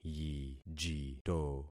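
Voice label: "yijito", concatenated syllables; Affixing experiment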